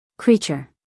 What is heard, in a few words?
"creature", US English, female